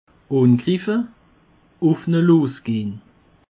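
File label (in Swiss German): "angriffe", Alsatian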